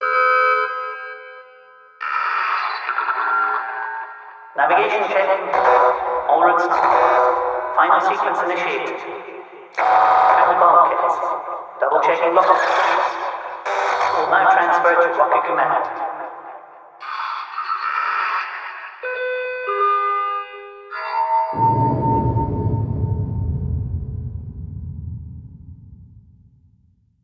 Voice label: Announcement